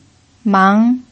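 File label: "mang", pinyin, second tone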